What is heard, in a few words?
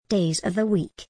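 "days of the week", British English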